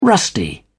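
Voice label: "rusty", British English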